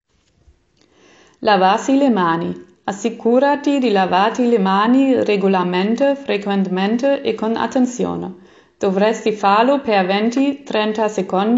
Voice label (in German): Italienisch